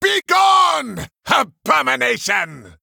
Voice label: Male Human Attack